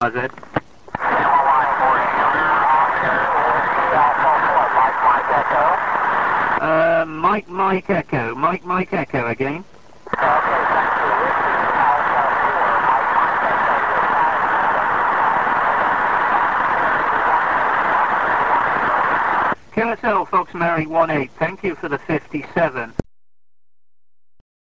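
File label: amateur radio contact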